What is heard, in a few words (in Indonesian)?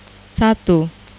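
"satu", Indonesian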